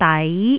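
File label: "dai2", Jyutping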